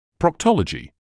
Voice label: "proctology", English